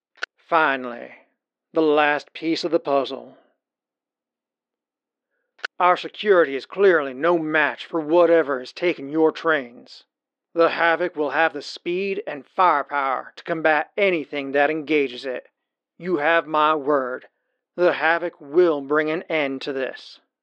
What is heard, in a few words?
Robot Western Effects